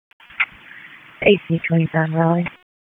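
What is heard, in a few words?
Raleigh Police Southeast District Dispatch, p25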